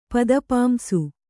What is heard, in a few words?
"ಪದಪಾಂಸು", kannada